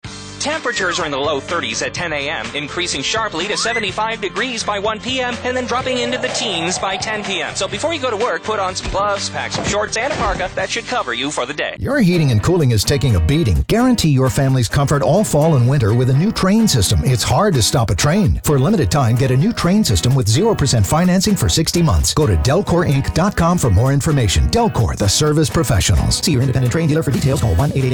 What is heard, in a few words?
Radio; Delcor